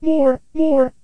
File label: Antenna Voice